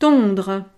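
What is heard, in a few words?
"tondre", French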